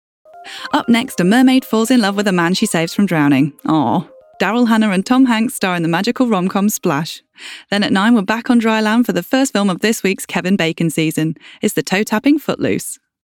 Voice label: female English continuity

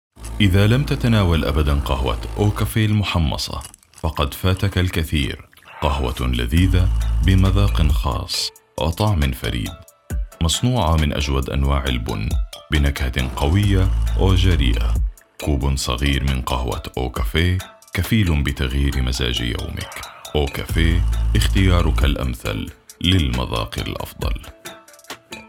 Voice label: male, arabic, commercial; coffee

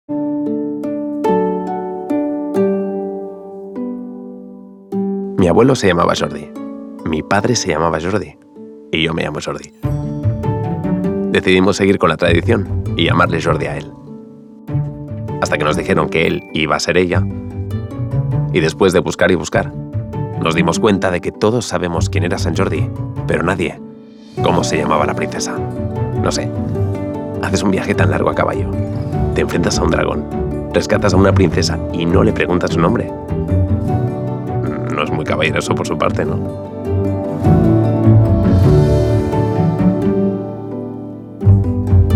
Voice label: male spanish castilian commercial; Volkswagen

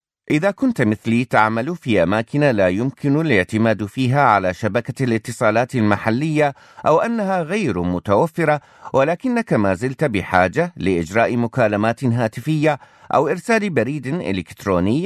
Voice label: male arabic corporate; inmarsat

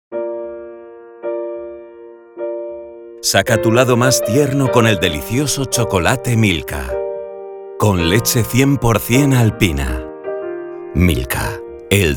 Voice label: male spanish castilian commercial; milka

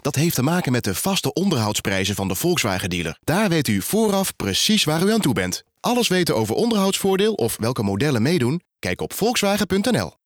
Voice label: male dutch commercial; volkswagen

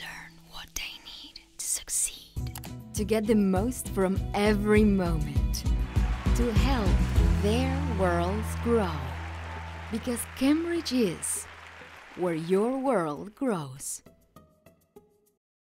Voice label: female english with latam accent; cambridge teachers tv spot

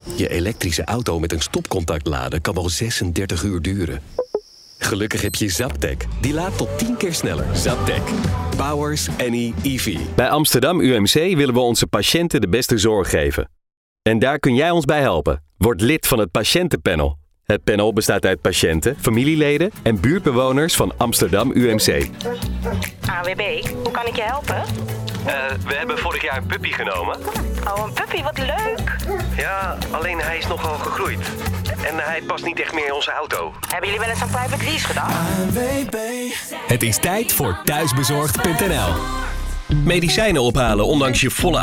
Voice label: male dutch

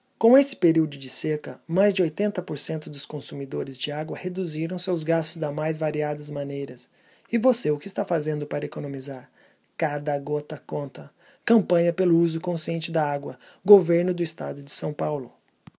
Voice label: male, Brazilian Portuguese; agua

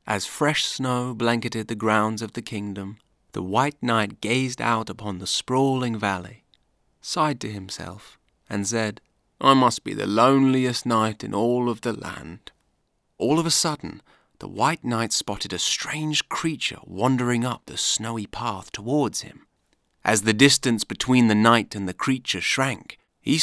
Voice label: male english with light rp accent narration

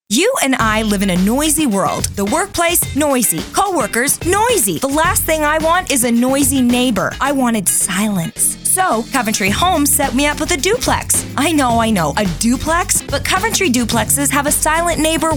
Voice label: female English Canadian accent commercial

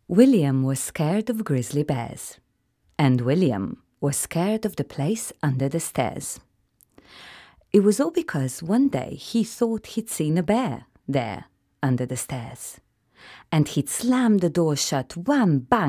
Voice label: female, Slovenian, English with Slovenian accent; the bear under the stairs

